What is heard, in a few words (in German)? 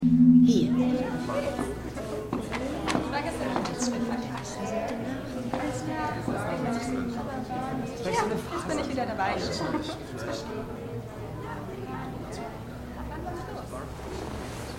Frau; Extrapolations